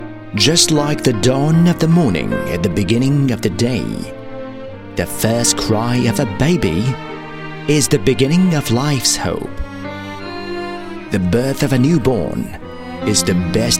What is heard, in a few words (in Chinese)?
英男 英式 专题 年轻音色